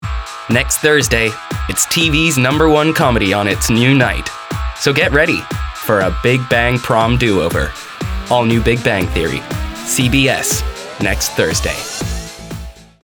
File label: promo